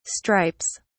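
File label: "stripes", British English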